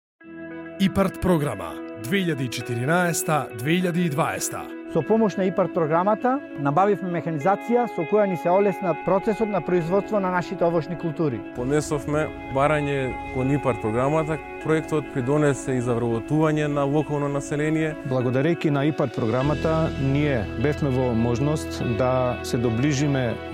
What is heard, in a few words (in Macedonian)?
radio reklama; Ipard